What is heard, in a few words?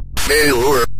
"failure", Synth Voice; Kenney's Sound Pack; Synth Voice 1